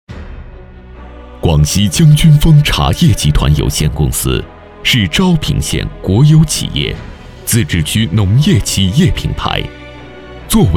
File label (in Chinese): 男-专题-茶业